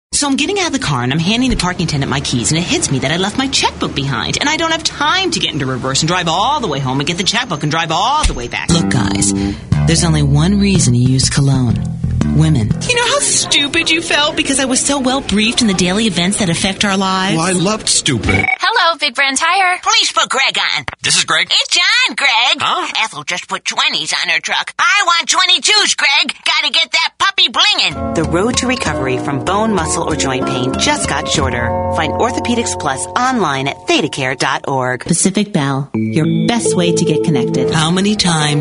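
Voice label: commercial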